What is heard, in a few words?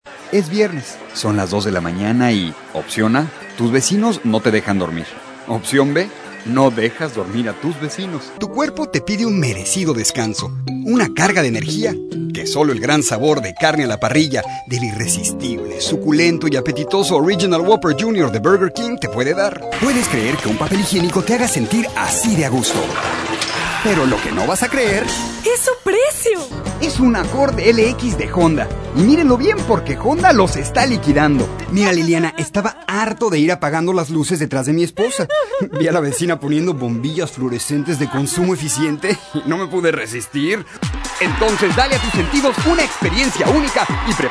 Latin